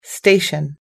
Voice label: "station", us english